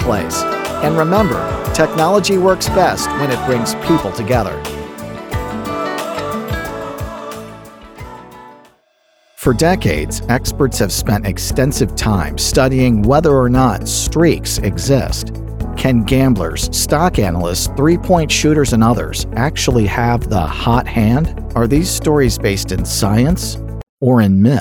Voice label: Podcast Intro Outro Samples